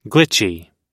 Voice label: "glitchy", pronounce

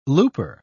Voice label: "looper", pronounce

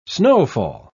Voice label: "snowfall"

